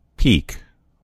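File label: "peak", American English; gcompris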